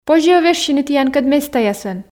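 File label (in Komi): позьӧ-ӧ вежсьыны тіянкӧд местаясӧн, Komi, female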